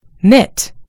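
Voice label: "knit", US English; gcompris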